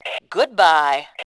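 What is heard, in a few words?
"bye", voice, female; Tribes2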